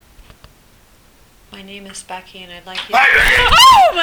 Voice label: becky scared